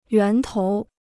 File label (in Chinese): "源头", Chinese